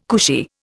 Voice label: "cushy", Indian

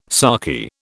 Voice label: "sarky", British

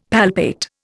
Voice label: "palpate", Indian; Dictionary

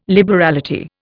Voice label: "liberality", Indian

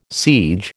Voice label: "siege", American

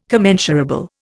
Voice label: "commensurable", Indian

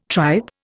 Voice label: "tripe", Indian

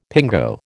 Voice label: "pingo", American

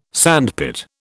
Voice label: "sandpit", British

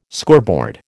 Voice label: "scoreboard", American

